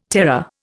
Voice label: "terror", Indian